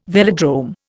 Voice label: "velodrome", Indian